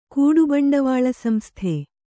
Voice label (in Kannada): "ಕೂಡುಬಂಡವಾಳಸಂಸ್ಥೆ", Kannada